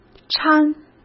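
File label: "搀" Mandarin Chinese; chinese flashcards; hsk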